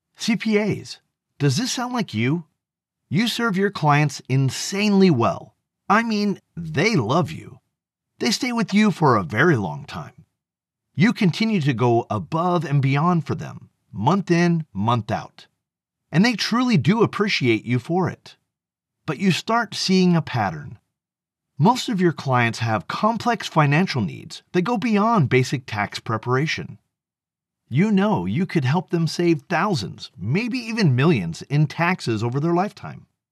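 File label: CPA commercial, VO sample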